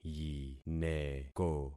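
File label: "yineko", concatenated syllables; Affixing experiment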